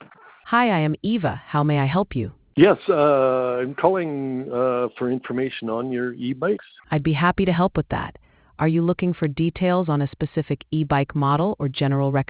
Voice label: conversation